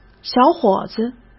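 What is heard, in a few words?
"小伙子", Mandarin Chinese; chinese-flashcards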